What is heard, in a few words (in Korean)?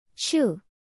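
"슈", Korean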